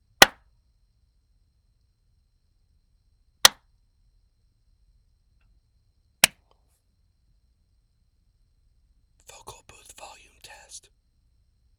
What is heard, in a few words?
Raw Audio Booth Test